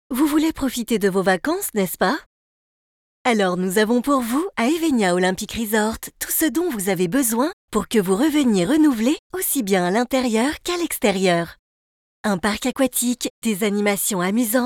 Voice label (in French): pub, voix off publicitaire commercial